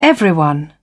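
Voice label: "everyone", British English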